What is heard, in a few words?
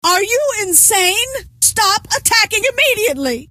friendly fire, scientist female